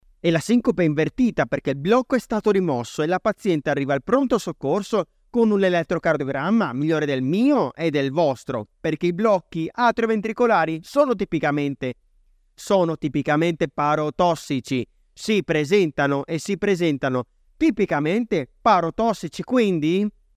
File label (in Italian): locutor italiano italian voiceover